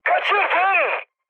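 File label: Turkish bomb failure, mood high, speech; War Thunder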